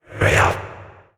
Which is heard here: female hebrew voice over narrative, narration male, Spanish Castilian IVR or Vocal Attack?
Vocal Attack